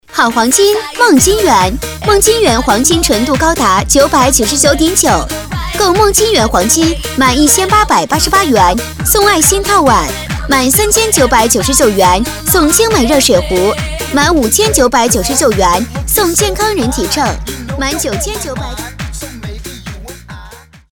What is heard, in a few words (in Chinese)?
激情促销; 梦金园黄金